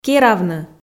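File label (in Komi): "керавны", Komi, female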